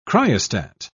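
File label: "cryostat", British English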